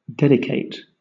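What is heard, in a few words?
"dedicate", British English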